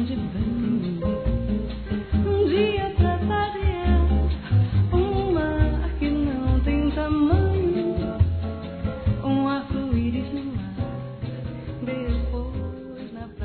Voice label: Aniversário Ser Amigo Masculino; Telemensagens 2017; Aniversário de Amigos

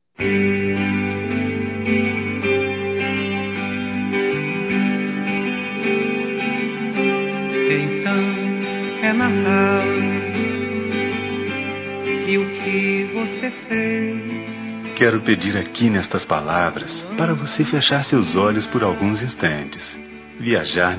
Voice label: NATAL ROMANTICO, masc

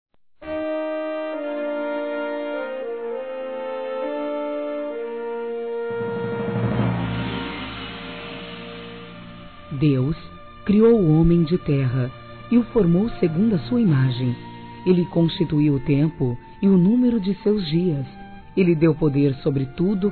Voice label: CONVITE IGREJA, Gospel, fem; Telemensagens; Telemensagens 2017

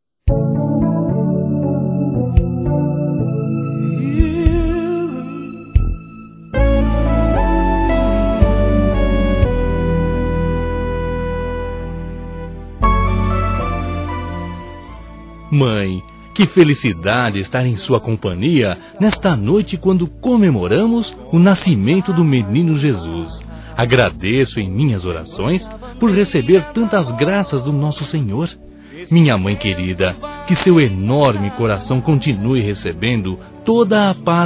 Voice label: Natal Ano Novo Mãe Masculino; Telemensagens; Misto

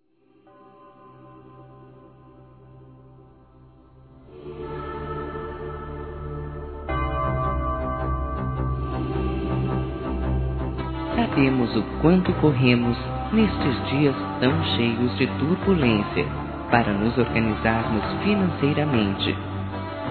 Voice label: COBRANÇA COMERCIAL-F, Telemensagens Empresarial; fem; Telemensagens 2017

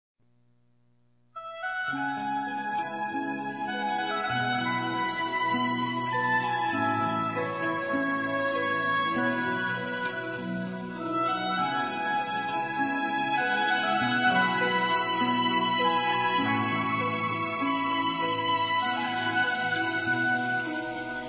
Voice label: Conforto Romântico Feminino; Telemensagens; Telemensagens 2017

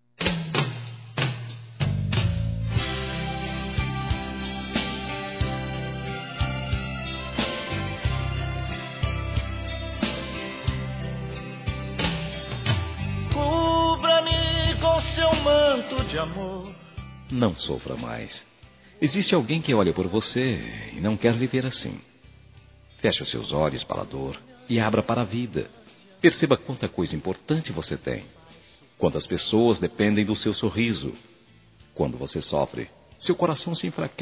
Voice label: Otimismo Masculino, Telemensagens; Telemensagens 2017

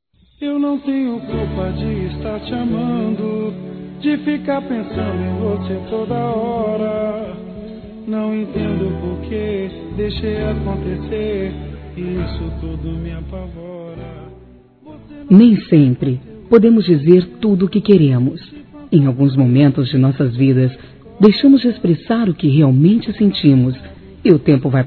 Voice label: PAI F

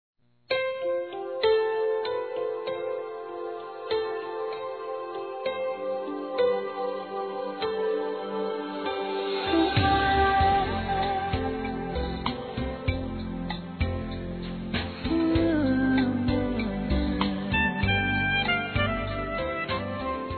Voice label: Boa VIAGEM ROMANTICA Feminino; Telemensagens; Telemensagens 2017

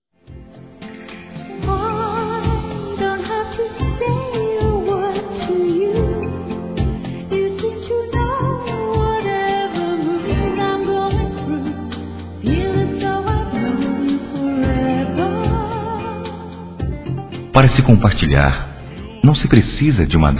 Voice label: Amizade Geral Masculino; Telemensagens

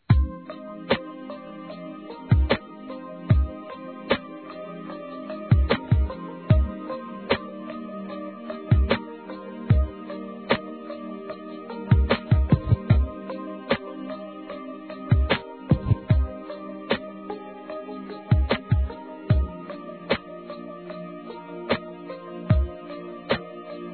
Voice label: Estou Gostando Você Feminino; Telemensagens; Conquistas Cantadas paqueras; Telemensagens 2017

